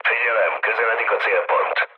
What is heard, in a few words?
near waypoint, mood low, speech hun; War Thunder